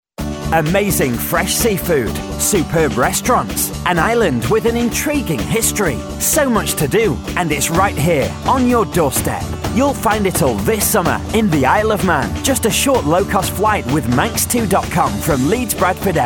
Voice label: bright

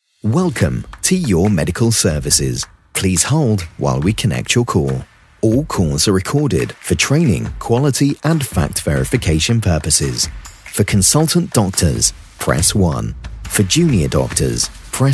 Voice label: ivr on hold

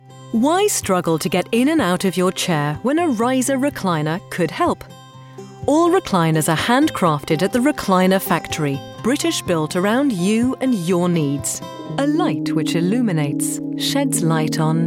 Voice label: commercial

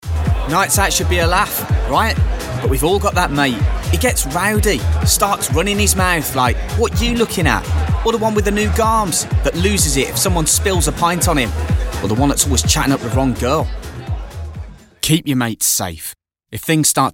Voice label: west midlands brummie natural

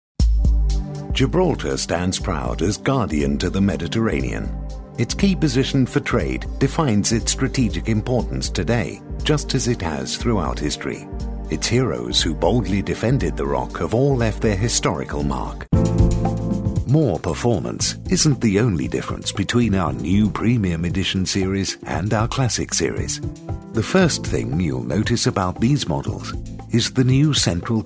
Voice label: corporate

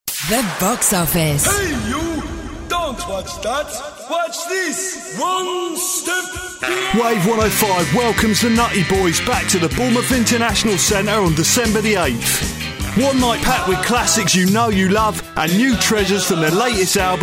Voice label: promo

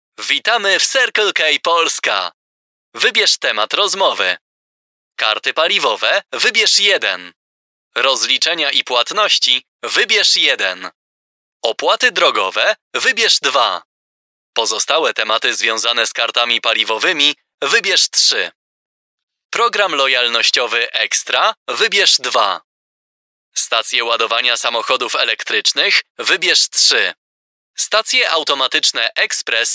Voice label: polish voiceover ivr

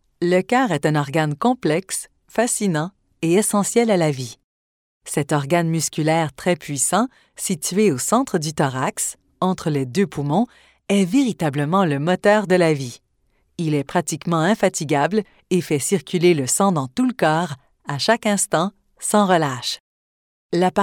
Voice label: french canadian female education

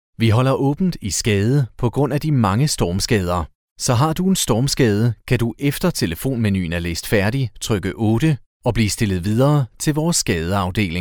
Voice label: danish male telephone

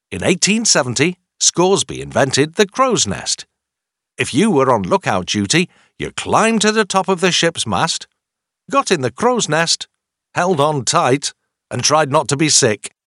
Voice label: audio tour

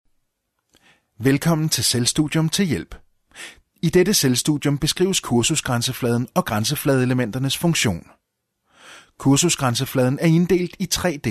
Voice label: danish

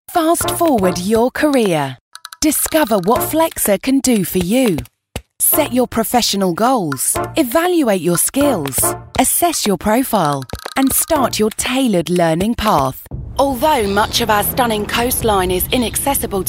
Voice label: corporate (online)

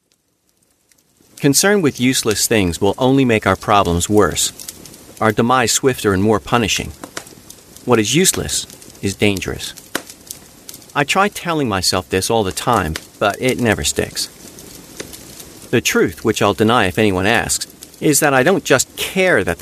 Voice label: mid atlantic audiobook

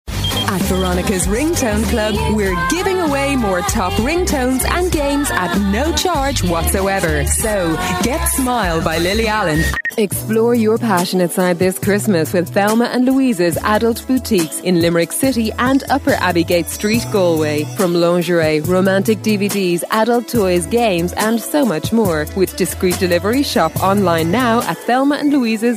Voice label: irish female commercial

